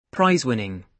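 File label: "prize winning", British English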